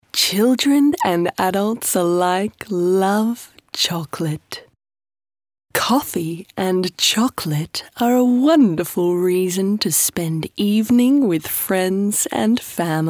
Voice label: ENG female Sweet